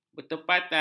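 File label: "bertepatan", Malay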